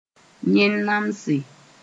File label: "yẽn-namse", Mooré